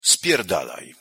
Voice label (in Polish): wymowa po polski "spierdalaj"